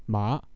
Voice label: Mandarin hemp

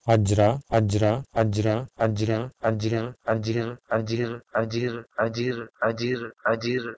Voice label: Sanskrit ajra to Hindi ajira

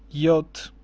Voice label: "yot", Armenian

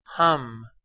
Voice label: "ham", Persian

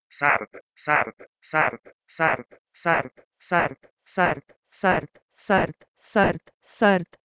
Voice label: Persian sard to Urdu serd

